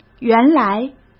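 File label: "原来", Mandarin Chinese; chinese flashcards; hsk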